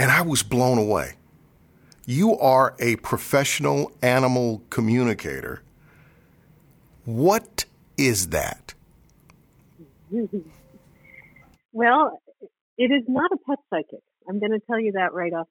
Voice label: Radio Interview